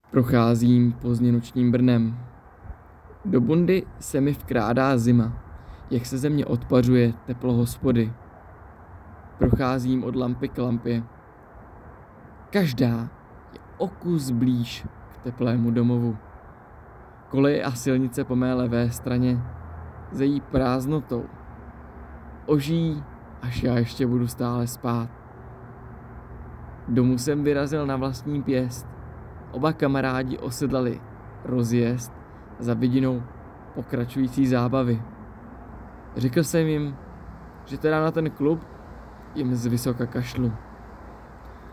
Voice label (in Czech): narace